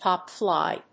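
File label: "pop fly", English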